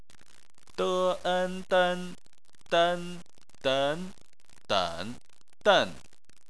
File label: "den", chinese